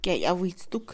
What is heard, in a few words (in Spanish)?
Mixe, Mujer; salvaje, loco, desenfrenado; Emociones; INALI